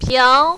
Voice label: "piao2", mandarin, female

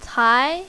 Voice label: "cai2", mandarin, female

